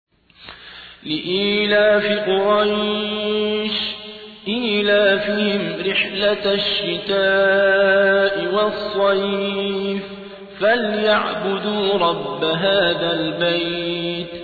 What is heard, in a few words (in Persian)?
ترتیل سوره قریش; صابر عبدالحکم